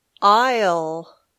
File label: "aisle", English